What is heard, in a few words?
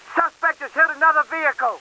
cops, English; Driver